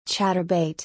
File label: pronunciation US ENGLISH